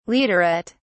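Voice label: "leaderette", English, British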